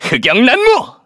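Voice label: Vox Skill, Korean; Kibera; kingsraid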